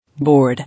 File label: "Board", English